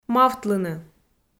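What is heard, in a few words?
"мавтлыны" Komi, female; kpv audio dict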